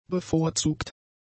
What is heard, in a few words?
"bevorzugt", German; adjectives